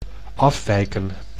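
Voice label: "afwijken", Dutch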